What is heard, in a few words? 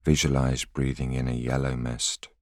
voiceover